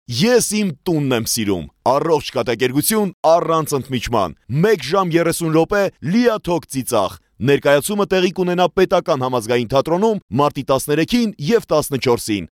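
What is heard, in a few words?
Armenian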